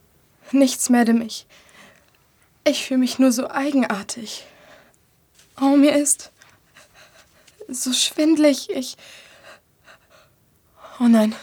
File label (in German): Synchron Historisch Drama